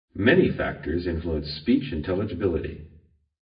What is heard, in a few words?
lecture 600ms